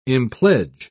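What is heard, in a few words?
"impledge", British English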